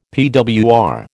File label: "PWR", American